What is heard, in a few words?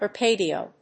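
"arpeggio", English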